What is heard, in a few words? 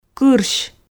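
"кырсь", Komi, female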